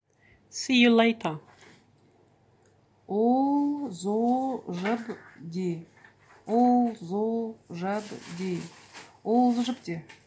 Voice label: See you later, buryat

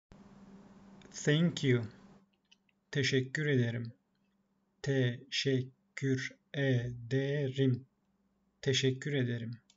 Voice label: thank you, Turkish; the talking turkish phrasebook

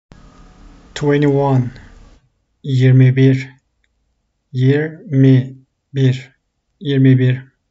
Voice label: twenty one 21, Turkish phrasebook; the talking turkish phrasebook

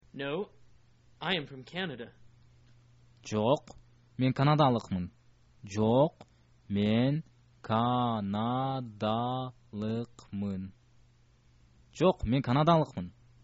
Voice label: no i am canadian, kyrgyz

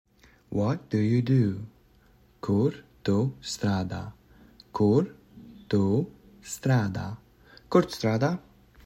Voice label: What do you do For work, Latvian; the talking latvian phrasebook

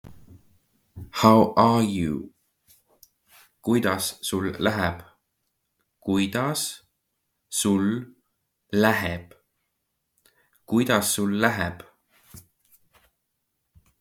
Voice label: How are you, Estonian phrasebook; the talking estonian phrasebook

